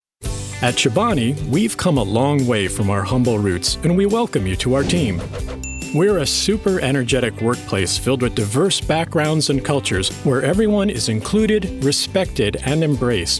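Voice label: eLearning employee onboarding friendly inclusive, male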